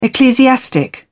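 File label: "ecclesiastic", British English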